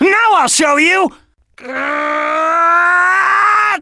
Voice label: Sonic English Final Smash Voice Clip; SSBB